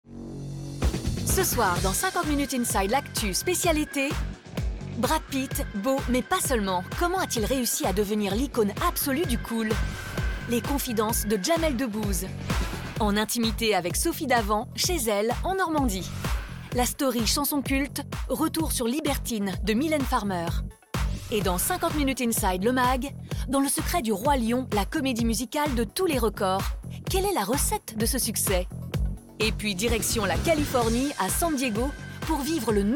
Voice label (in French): Voix off; TF1; 50 MIN INSIDE; ba 50inside sur tf1